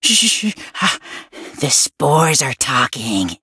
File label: touch, en; cookie run